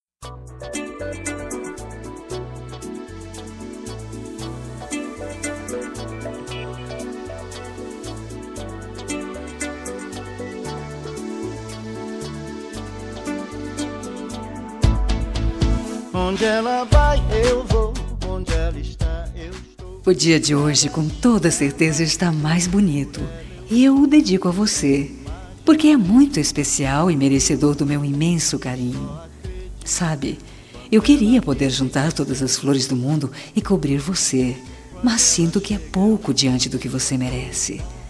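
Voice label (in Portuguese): aniversario ficante feminino, telemensagem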